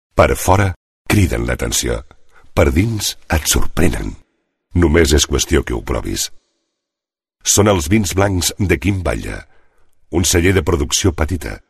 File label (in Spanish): Masculino